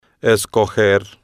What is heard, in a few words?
"escoger", spanish